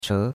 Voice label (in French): "che2", chinois; Cours-de-Chinois